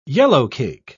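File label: "yellowcake", British English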